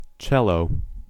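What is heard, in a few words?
"cello", US English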